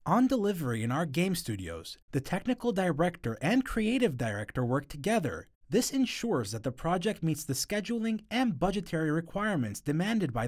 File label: Male English